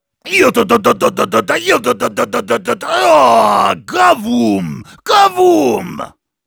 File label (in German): Heavy special completed, de